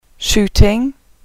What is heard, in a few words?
"shooting", English